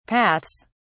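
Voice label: "paths", British English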